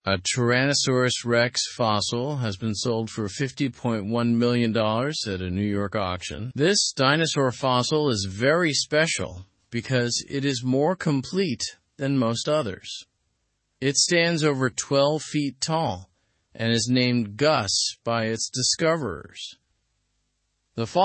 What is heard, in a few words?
english news lower intermediate; record breaking t rex fossil sale in new york